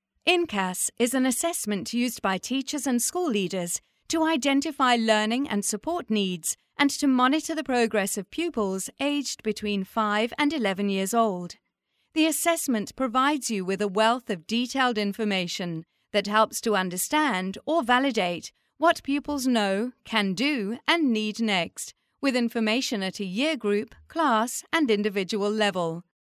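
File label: English British, female, voice over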